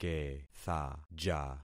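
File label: "kethaja", concatenated syllables; Affixing experiment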